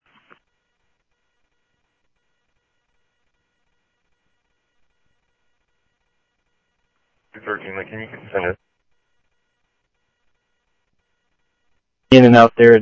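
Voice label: Phoenix police department, scanner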